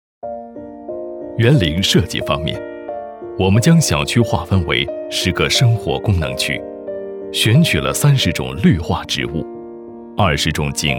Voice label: 男, 项目专题片

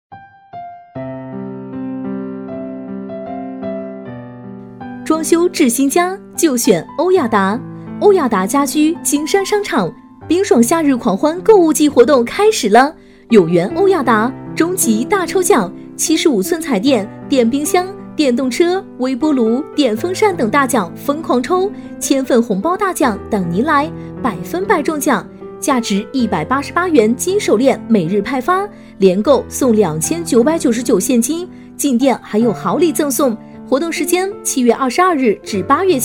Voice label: 女 促销 温柔版

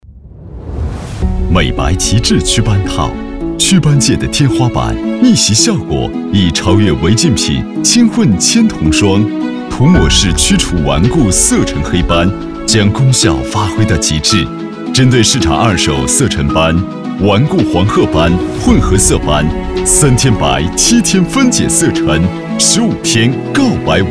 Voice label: 大气轻快 广告 美白极致祛斑套, 男

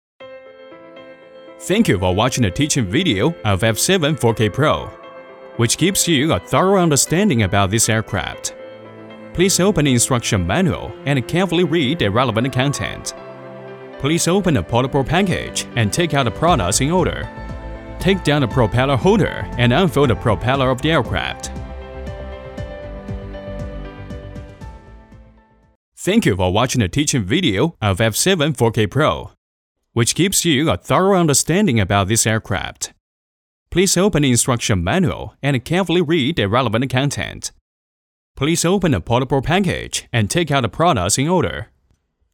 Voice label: 男 英语 解说专题; F7-4K-PRO

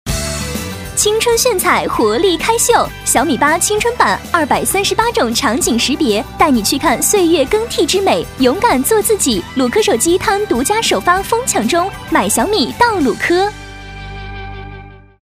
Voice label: B女 促销 青春时尚; 鲁科

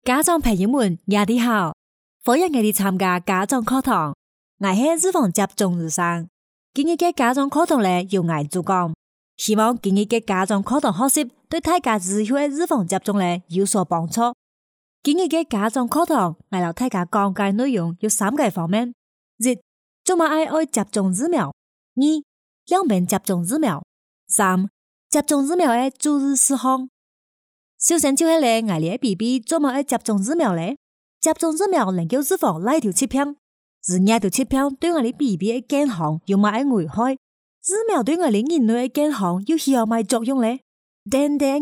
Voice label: 女 客家话 专题

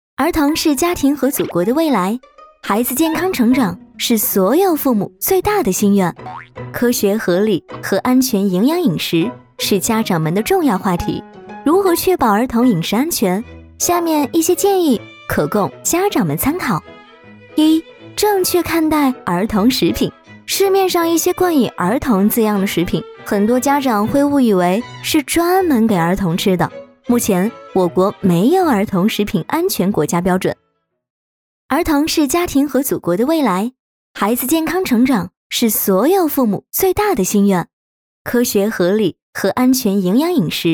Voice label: 女 食品安全示范城市创建篇; 飞碟说MG

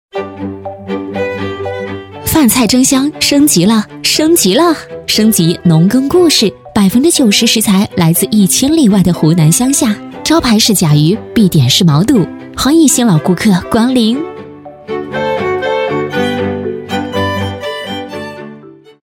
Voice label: 促销, 女

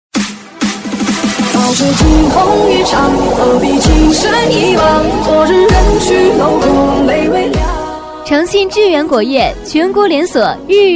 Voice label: 促销 果业, 女; 诚信志远果业

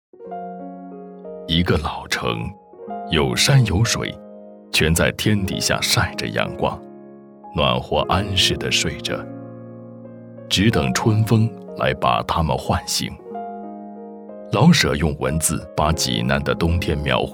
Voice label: 男 纪录片

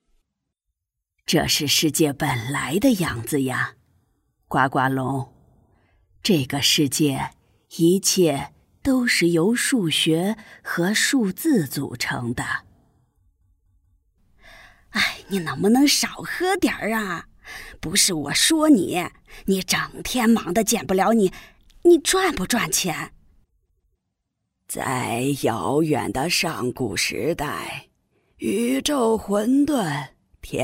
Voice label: 角色音 老年女声

